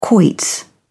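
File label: "quoits", English, uk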